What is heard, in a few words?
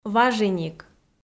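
"важиник", Komi-Zyrian, female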